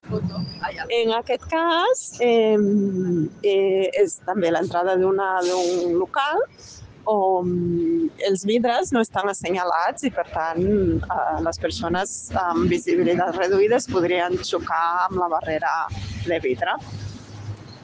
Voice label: Voice message